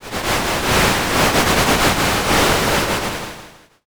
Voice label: whisper